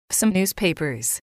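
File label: "some newspapers", English, female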